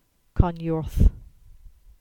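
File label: "Carnyorth", Cornish; place-names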